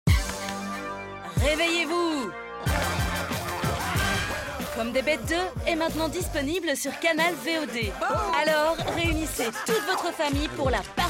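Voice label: French commercial; GoLocalise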